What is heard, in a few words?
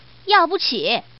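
Woman, "don't want"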